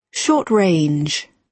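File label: "short range", British English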